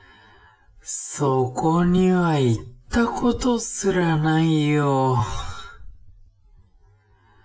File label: man